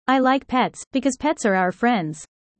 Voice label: user fast; Animal friends